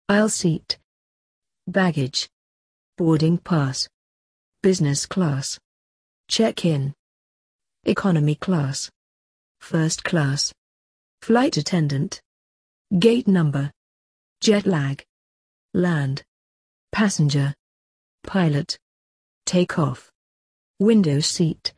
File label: airports british